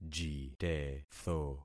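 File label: "jitetho", concatenated syllables; Affixing experiment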